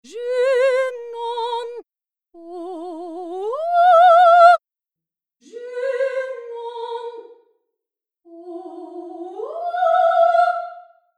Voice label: VOICE DRY VOICE REVERB